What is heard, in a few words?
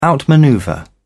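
"outmanoeuvre", British English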